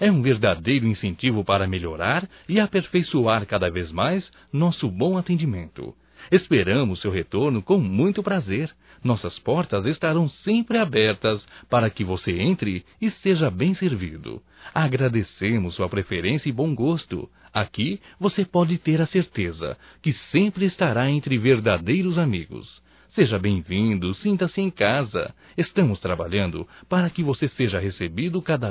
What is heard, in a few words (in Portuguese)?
CLIENTE EMPRESáRIO (M); Profissionais; masc; Telemensagens 2017